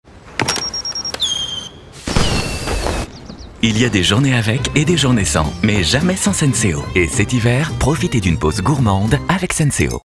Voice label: naturel souriant; SENSEO

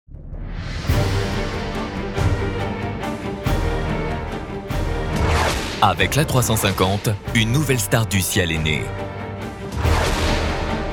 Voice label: efficace droit, voix off documentaire, homme; AIRBUS A350

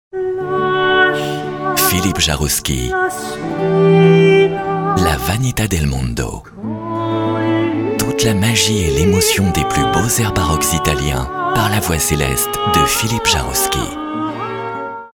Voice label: elegant chaleureux, Voix off disque

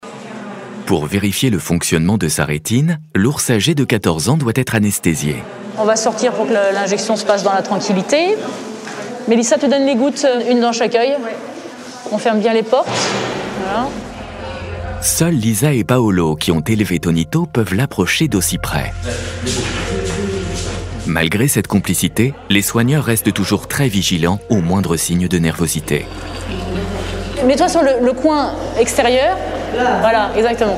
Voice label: voix off documentaire, homme

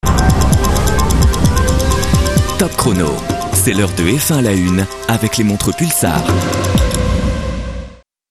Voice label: sport, comédien voix off parrainage; PULSAR sport

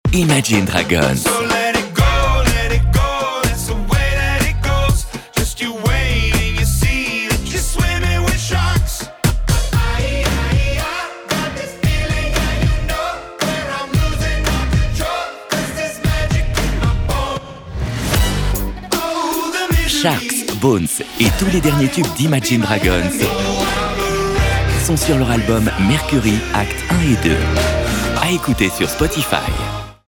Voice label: chaleureux enthousiaste, Voix off disque

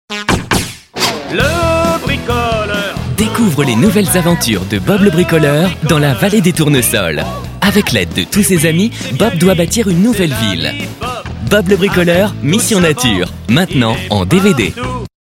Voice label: junior, voix off jeunesse; BOB LE BRICOLEUR

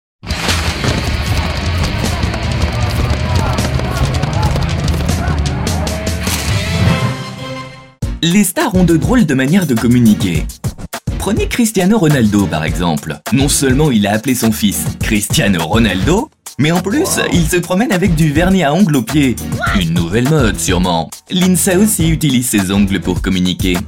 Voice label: voix off emission; CRISPY NEWS Mtv

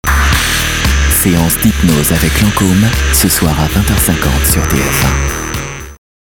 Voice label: elegant, comedien voix off parrainage; LANCOME elegant

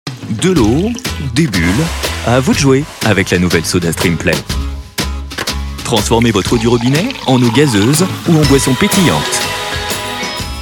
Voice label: decontracte, Comédien voix off, publicité; Sodastream

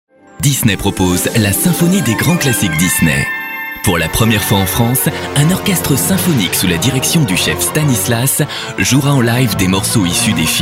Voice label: evenement, Voix off disque; DISNEY SYMPHONIE

